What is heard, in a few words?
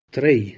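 "trei", Proto-Indo-European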